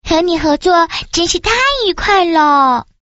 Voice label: quick chat voice, girl